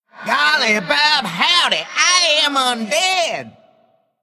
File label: Male Undead